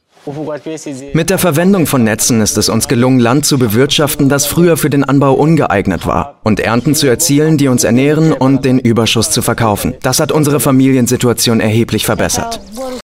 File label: Voiceover, German; Voiceover - Aktion gegen den Hunger; Doku, Lip-Sync (Synchron)